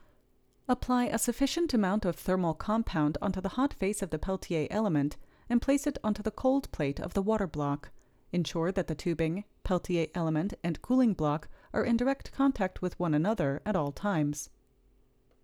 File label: Voiceover